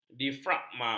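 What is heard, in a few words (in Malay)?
"diafragma", Malay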